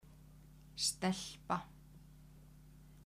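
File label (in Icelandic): "stelpa" Icelandic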